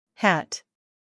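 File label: "hat", American English female; everyone-can-use-english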